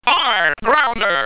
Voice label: "Hard Grounder", Baseball; World Series

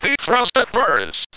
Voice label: "He Throws To First", Baseball; World Series

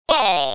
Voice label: "Ball", Baseball; World Series

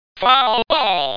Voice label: "Foul Ball", Baseball; World Series

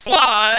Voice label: "Fly", Baseball; World Series

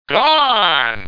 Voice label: "Gone", Baseball; World Series

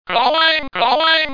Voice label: "Going Going", Baseball; World Series

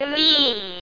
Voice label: "green", Robots; Same Game

